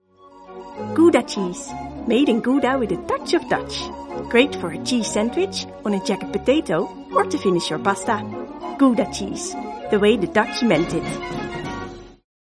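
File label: Dutch English, female, commercial, accent of the netherlands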